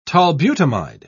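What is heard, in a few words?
"tolbutamide", British English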